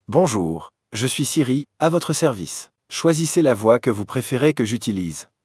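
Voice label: French; iOS Sounds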